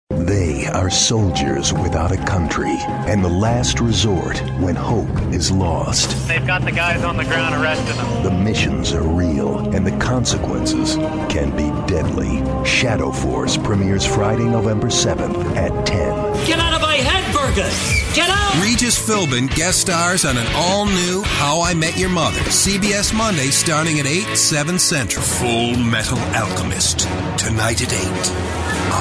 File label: promo